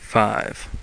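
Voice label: "five", English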